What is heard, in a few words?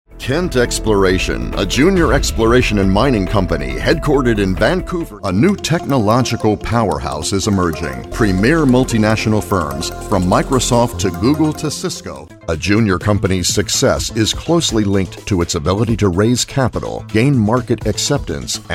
Narrations Male Voice Over; Male Voice Over Demo